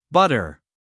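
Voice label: "butter", American English, male; 1000 hours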